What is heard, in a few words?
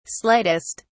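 "slightest", British English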